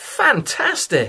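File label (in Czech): "fantasticke", Czech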